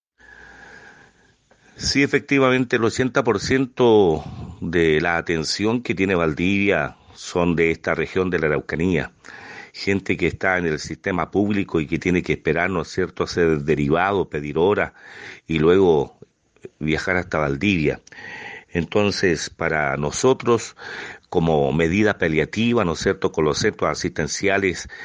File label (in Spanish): Cuña